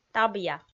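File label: "табъя", Komi, female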